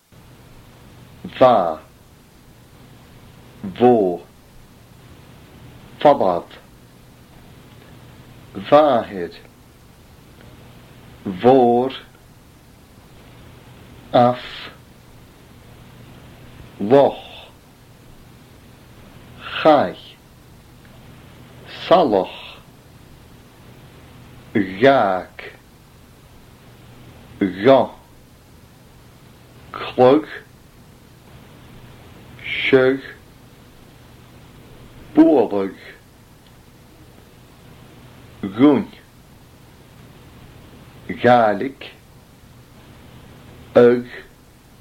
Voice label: fricatives